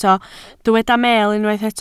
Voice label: "meel", Welsh

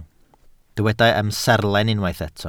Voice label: "amserlen", Welsh

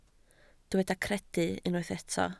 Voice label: "credu", Welsh

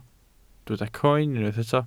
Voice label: "croen", welsh

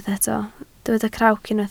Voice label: "crawc", Welsh

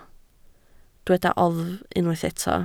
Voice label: "oddf", Welsh

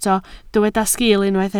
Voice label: "sgiil", Welsh

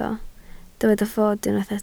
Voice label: "fod", Welsh

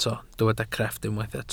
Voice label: "crefft", welsh

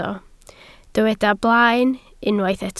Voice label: "blaen", Welsh

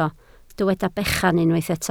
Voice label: "bechan", Welsh

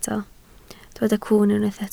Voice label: "cwwn", Welsh

